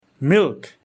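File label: "dudh", Nepali; grade1; hamro khanekura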